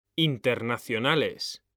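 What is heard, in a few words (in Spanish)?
"internacionales", Spanish, male; mekanta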